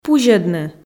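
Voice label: "пузьӧдны", Komi, female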